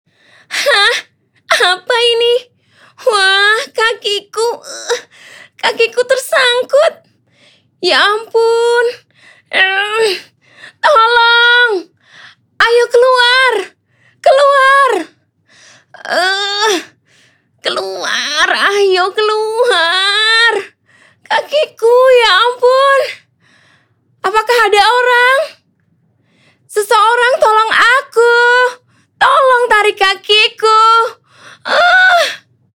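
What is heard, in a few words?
character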